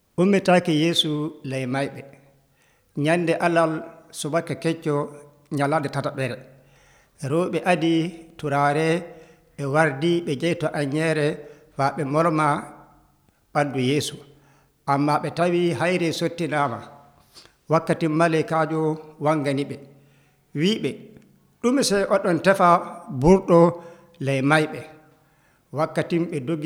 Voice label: western niger fulfulde, drama; résurrection de jésus

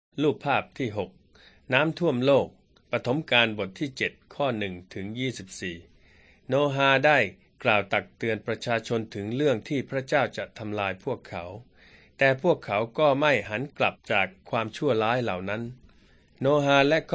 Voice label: beginning with god thai central

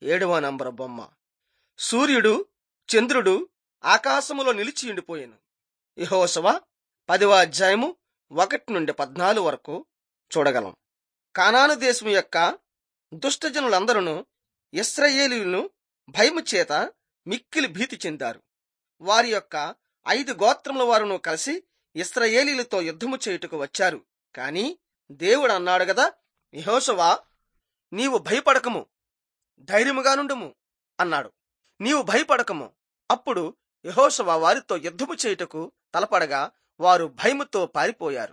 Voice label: telugu bangladesh

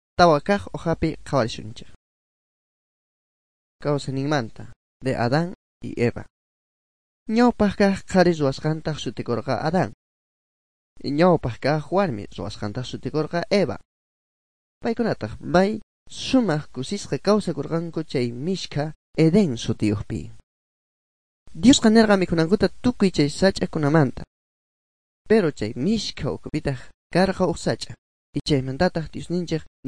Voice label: good news quechua bolivian sucre; bible

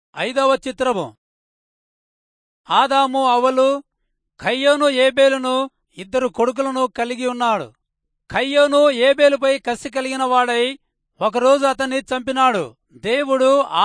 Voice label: telugu rayalseema; good news

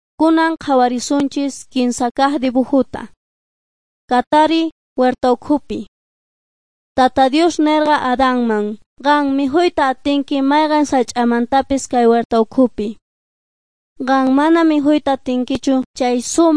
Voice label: beginning with god quechua s bolivian cochabamba

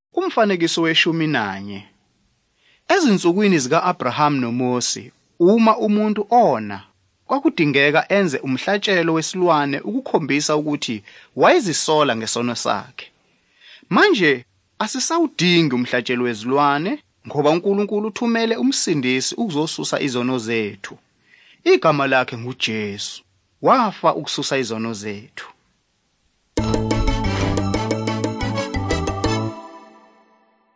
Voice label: zulu bible; good news

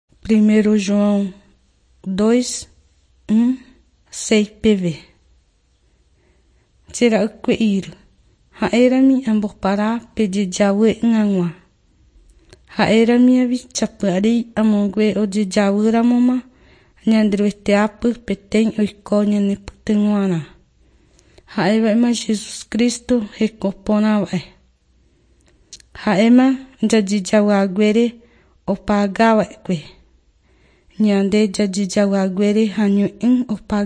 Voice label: john guarani mbya, bible

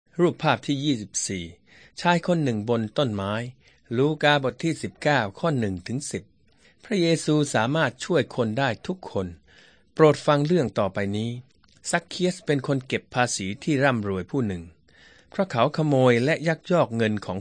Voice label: thai central

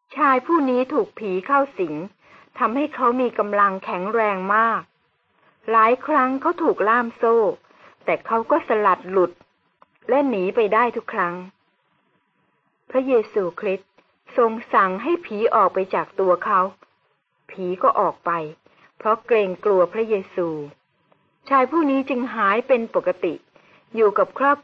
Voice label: good news short thai central

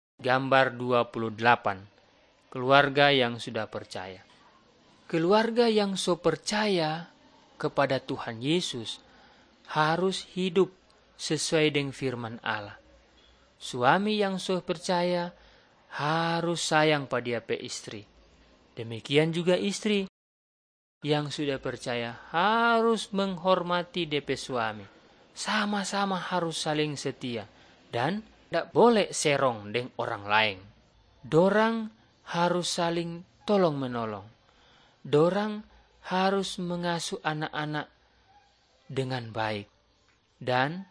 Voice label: malay manado; good news

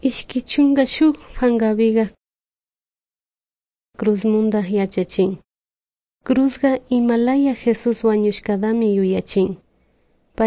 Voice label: good news quichua de salasaca

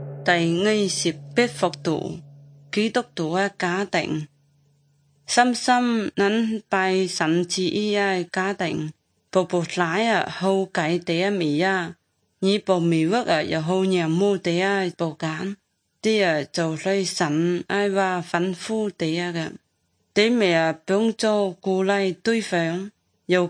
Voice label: good news zhuang guigang guiping

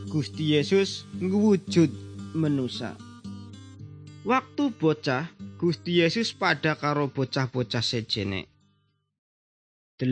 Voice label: good news jawa indramayu, javanese bible

